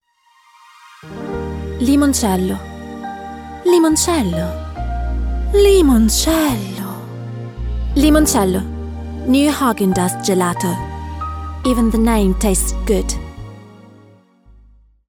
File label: Ad, English; Limoncello